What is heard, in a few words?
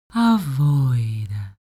human vocal female talk elfish; smartsoundfx